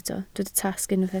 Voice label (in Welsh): "tasg", Welsh